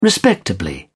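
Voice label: "respectably", British English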